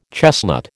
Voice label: "chestnut", American